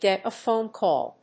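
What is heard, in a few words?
"get a phone call", English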